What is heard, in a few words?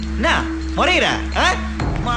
tamil comedy; funny